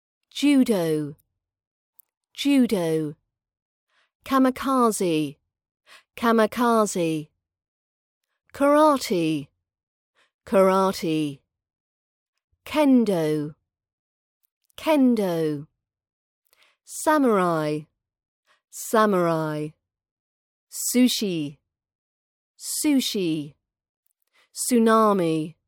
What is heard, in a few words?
japanese words used in english british accent training